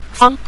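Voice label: "fang", Mandarin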